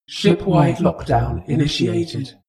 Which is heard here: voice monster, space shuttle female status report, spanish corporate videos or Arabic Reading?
space shuttle female status report